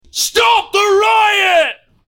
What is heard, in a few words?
shouting Stop the ri